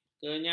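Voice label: "kenyang", Malay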